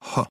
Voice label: phoneme horse, American; American IPA phonic